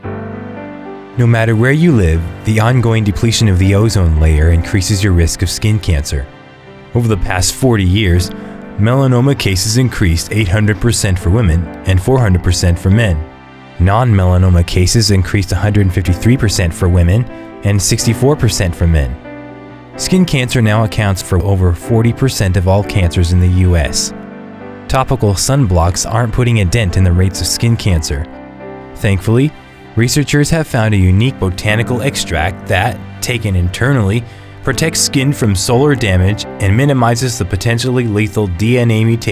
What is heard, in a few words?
Narration Health Education